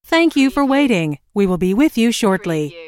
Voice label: US female phone prompt Thank you for waiting - We will be with you shortly; lynne publishing